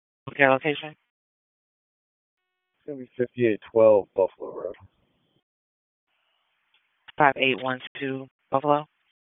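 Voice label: Police Northeast District Dispatch; Raleigh; wake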